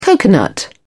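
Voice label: "coconut", English, British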